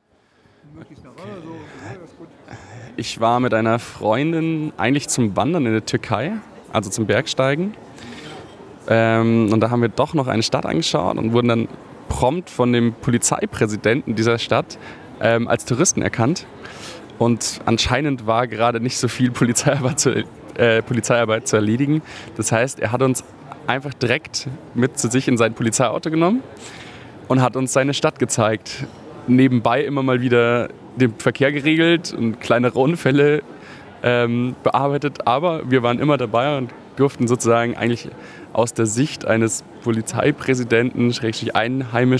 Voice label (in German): stimme m